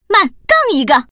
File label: "gang", woman; fujian